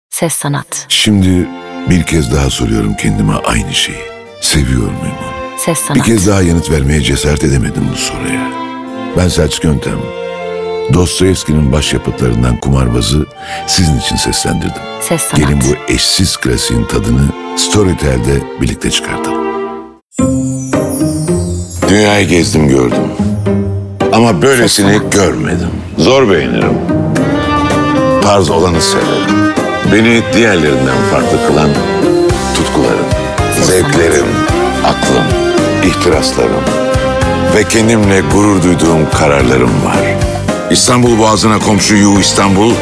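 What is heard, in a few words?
Turkish, male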